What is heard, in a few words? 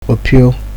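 "opio", Nigerian Pidgin, male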